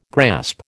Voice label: "grasp", American

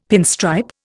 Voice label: "pinstripe", Indian; Dictionary

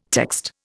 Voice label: "text", Indian

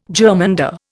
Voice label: "germander", Indian

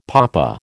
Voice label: "papa", American

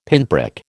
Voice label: "pinprick", American

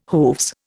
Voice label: "hooves", Indian

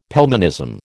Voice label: "Pelmanism", American

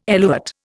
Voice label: "eluate", Indian

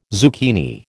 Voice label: "zucchini", American

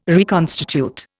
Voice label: "reconstitute", Indian

